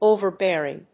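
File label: "overbearing", English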